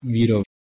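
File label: "wih1ro", Proto-Indo-European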